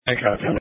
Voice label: Police Tactical Encrypted